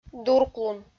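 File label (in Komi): "дурклун", Komi, female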